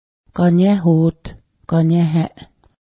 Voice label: chimney pipe, Cayuga